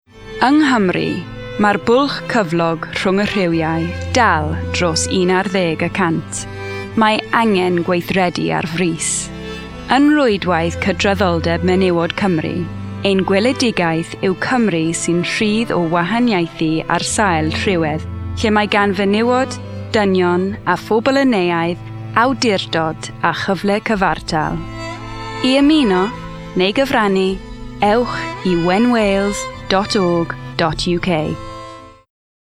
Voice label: Welsh Commercial

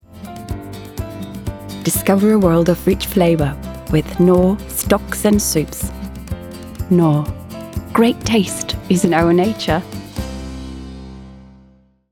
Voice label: Commercial English

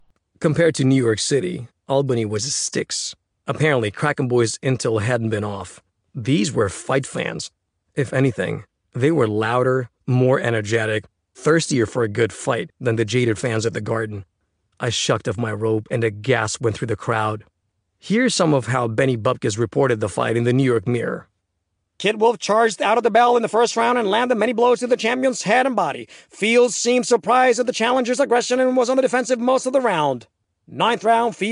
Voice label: Narrative

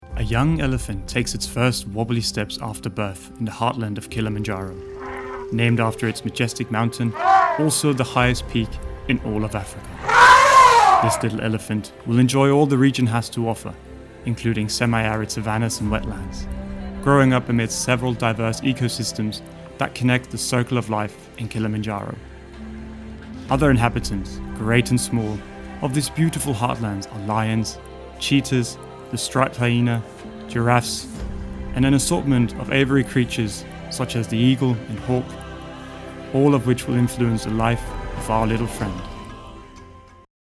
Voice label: Documentary English

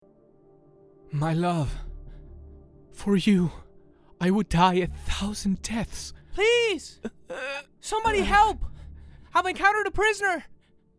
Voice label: Animation

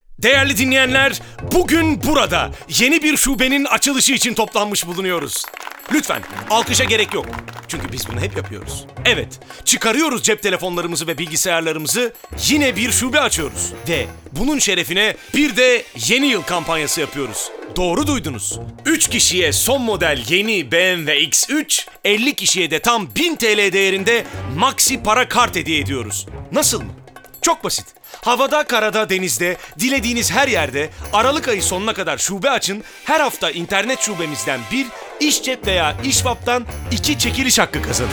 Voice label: Commercial Turkish

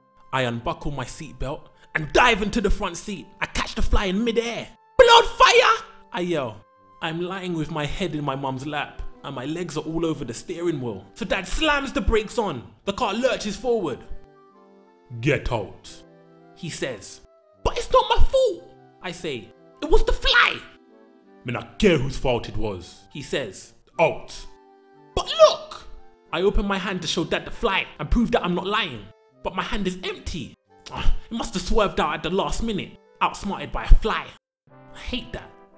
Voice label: Character

